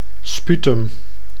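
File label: "sputum", Dutch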